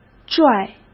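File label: "拽", Mandarin Chinese; hsk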